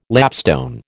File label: "lapstone", American